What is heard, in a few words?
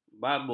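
"babun", Malay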